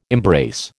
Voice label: "embrace", American